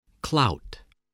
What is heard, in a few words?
"clout", voice